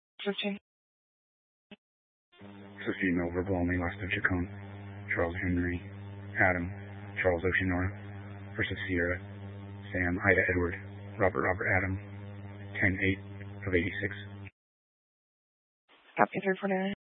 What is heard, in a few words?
Sheriff Dispatch, scanner; Mesa County